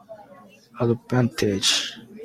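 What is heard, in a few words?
"advantage", Japanese, male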